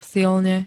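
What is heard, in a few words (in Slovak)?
"silne", Slovak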